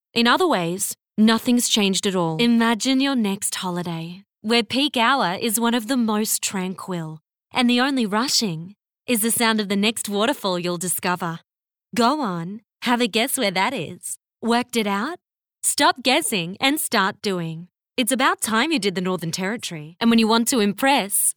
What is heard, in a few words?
Natural; Voice Overs